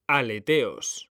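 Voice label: "aleteos", Spanish, male; mekanta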